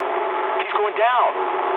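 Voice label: English aircraft destroyed mood med; War Thunder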